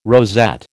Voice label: "rosette", American

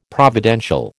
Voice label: "providential", American

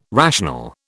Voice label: "rational", British

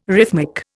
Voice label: "rhythmic", Indian

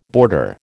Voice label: "boarder", American

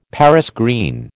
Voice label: "Paris green", American

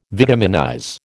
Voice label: "vitaminize", American

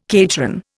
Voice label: "cateran", Indian

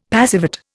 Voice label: "passivate", Indian; Dictionary

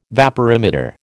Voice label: "vaporimeter", American

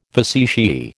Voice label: "facetiae", American

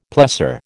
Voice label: "plessor", American

